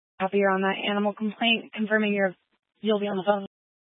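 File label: Incident Tac 3, Scanner audio recording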